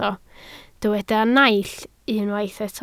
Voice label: "naill", Welsh